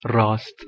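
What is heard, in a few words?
"rast", Persian, male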